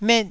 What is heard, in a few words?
"met4", Taiwanese